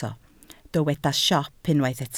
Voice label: "siop", Welsh